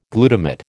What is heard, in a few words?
"glutamate", American